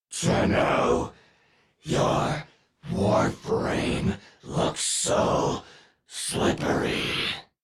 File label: Death Squad Taunt; Leekter; Warframe; en